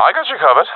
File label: covering friend, professional; vo; Counter Strike Model Pack; CS:GO